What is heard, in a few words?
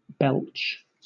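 "belch", British English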